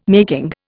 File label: "making", Indian